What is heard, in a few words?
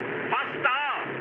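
German near waypoint mood high, speech; War Thunder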